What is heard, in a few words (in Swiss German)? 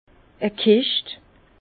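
"kischt", Alsace Bas Rhin; vin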